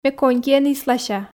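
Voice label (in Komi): ме конькиӧн ислася, Komi, female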